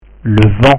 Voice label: "le vent", French; vocabulary

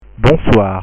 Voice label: "bonsoir", French

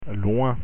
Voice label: "loin" French; pronunciation

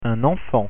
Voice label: "enfant" French; pronunciation

